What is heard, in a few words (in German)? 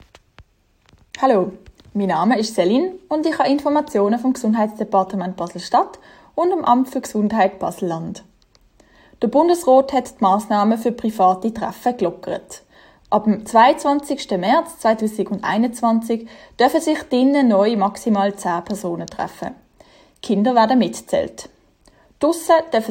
schweizerdeutsch; kantonsarztlicher dienst; medizinische dienste; informationen in verschiedenen sprachen